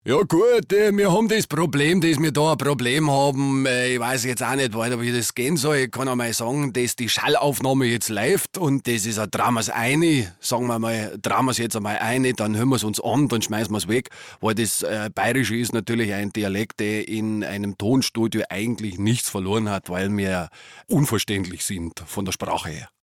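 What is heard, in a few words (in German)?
dialekt, sprachprobe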